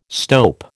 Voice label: "stope", American